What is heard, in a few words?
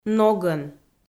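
"ногӧн", Komi, female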